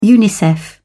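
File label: "unicef", British English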